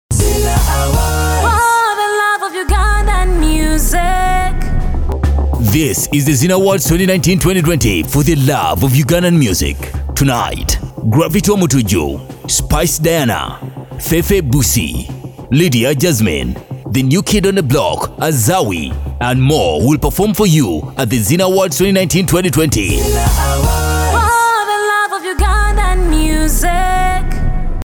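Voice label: Awards promo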